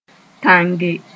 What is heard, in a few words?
"tãnge", moore